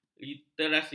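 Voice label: "literasi", Malay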